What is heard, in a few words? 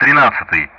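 Speech Russian; DCS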